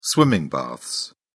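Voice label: "swimming baths", British English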